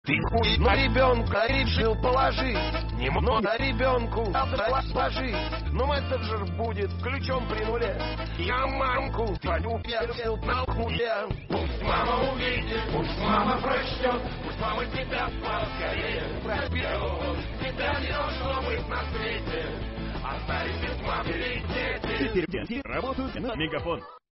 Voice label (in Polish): reklama megafon